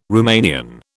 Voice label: "Rumanian", British